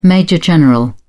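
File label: "major-general", British English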